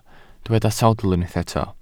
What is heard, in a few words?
"sawdl", Welsh